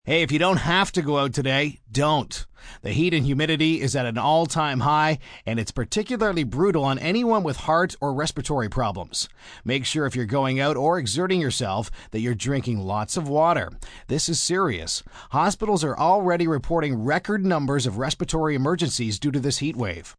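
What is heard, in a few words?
Weather Hot Male, Broadcast, weather, male weather report; heat/humidity health advisory, news (human voice speaking); VoiceBox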